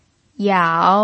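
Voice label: "yao3", Mandarin Chinese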